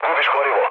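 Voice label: Serbian tank damaged mood low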